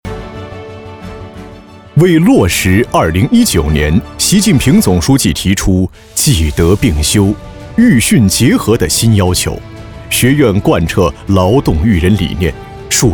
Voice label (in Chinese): 男 学校专题 卫生校园; 工院卫生校园配音稿